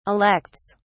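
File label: "elects", British English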